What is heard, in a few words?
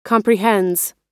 "comprehends", us